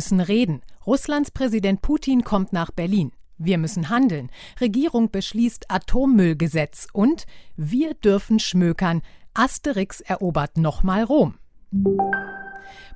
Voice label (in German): nachrichten; kakadu; drk